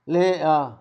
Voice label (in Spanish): "yo solo", Zapoteco, Magdalena Ocotlan; Pronombres; INALI; Haspelmath